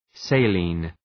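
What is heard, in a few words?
"saline", English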